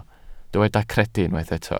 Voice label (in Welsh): "credu" Welsh